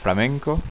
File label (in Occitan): "flamenca", occitans